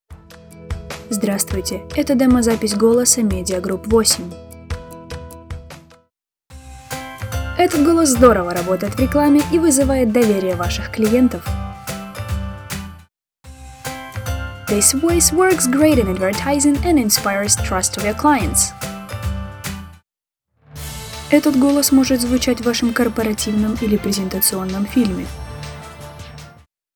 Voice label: female